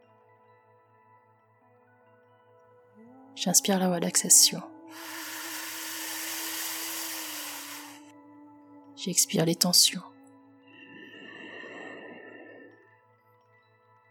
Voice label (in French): affirmations positives abondance